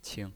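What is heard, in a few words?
"qing" neutral tone, pinyin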